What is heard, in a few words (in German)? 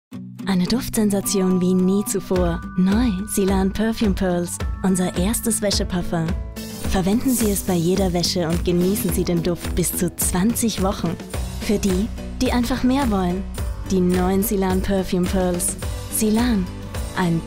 Wäscheparfum TV; Silan